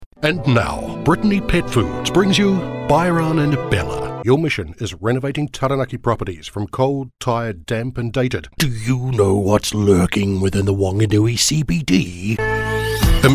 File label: Straight Reads